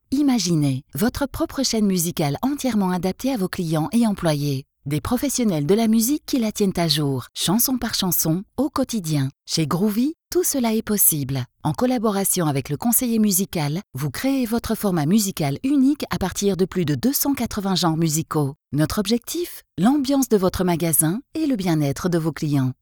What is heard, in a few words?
french promos